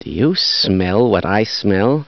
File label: scientist do you smell, taunt; phx new taunts pack; hunters pack